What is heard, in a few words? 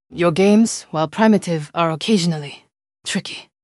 upset; fertig classic games